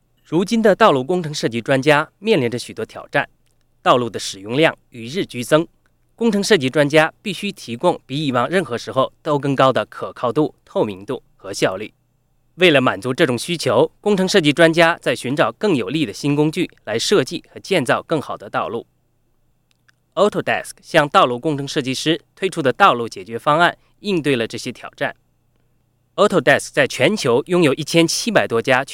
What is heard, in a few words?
mandarin male voice over sample